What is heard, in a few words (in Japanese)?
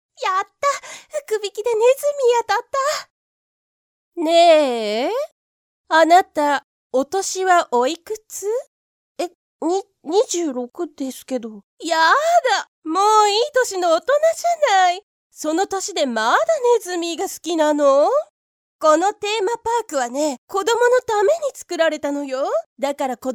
福引 ヒスママ 掛け合い